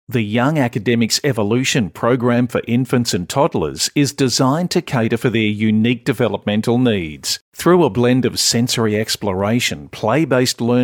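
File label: Soft Sell, voice-overs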